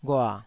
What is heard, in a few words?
"ghua3", Taiwanese syllable